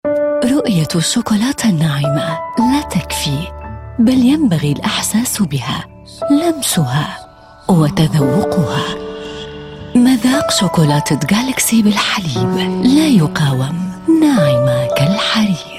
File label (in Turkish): Arapca Kadin Klasik Arapca Lubnanli; kadin sesler; seslendirme